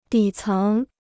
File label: "底层", Chinese